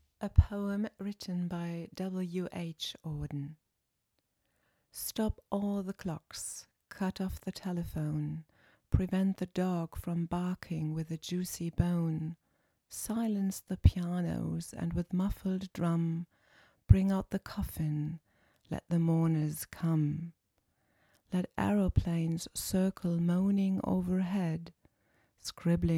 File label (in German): auf Englisch